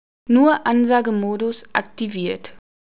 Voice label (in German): Nur Ansage Modus